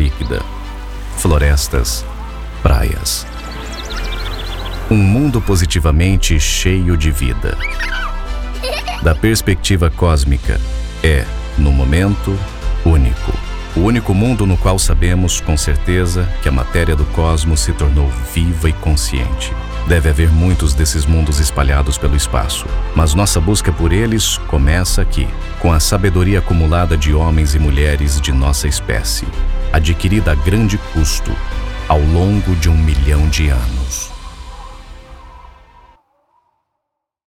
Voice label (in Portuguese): Voz Documentário Planeta Terra